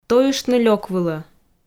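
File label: "тойыштны лёк вылӧ", Komi, female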